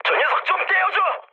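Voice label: Korean aircraft damaged mood medium; War Thunder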